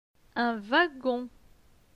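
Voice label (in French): "Un wagon", French; phonetique; les consonnes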